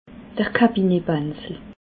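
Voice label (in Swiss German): "kabinetbansel", Alsatian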